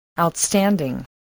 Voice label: "outstanding", English voice